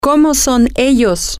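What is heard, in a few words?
what are they like, spanish, female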